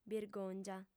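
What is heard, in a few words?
"birgòngia", female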